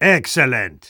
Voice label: positive vocalization

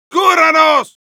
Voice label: battlecry, es; Medic; "¡Cubranos!" (Traducción: "Hail us!")

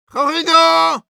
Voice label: battlecry ru; «Horrido!» (Немецкий боевой клич); Medic

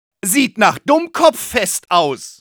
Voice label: Medic taunts, de

